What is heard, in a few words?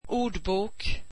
swedish dictionary